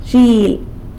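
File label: "zhily" sheep, San Lucas Quiaviní Zapotec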